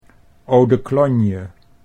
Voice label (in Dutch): "odeklonje", Dutch